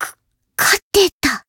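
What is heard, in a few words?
Voice win Japanese; white nopou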